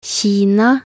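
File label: "Kina", Norwegian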